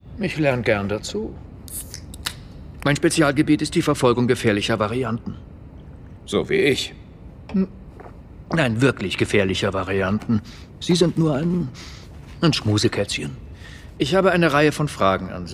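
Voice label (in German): Synchron, Sprecher, Männer; Loki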